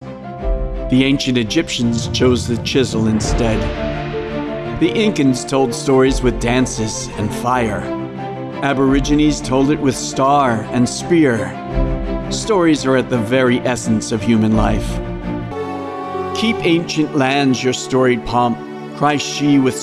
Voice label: Narrative